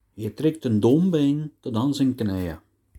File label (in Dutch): rook inhaleren, dialect